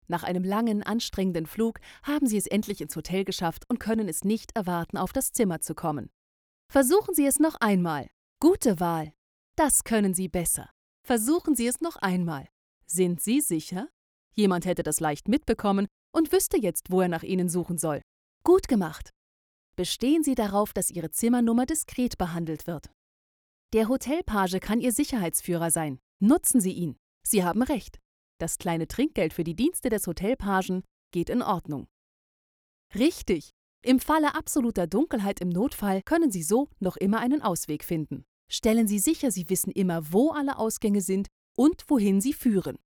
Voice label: e learning safety German